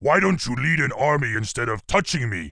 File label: Grunt pissed, en; 苦工（魔兽争霸3）; 兽人